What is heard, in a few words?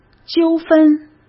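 "纠纷", Mandarin Chinese; hsk; chinese-flashcards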